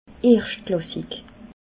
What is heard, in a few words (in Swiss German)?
"erschtklassik", alsace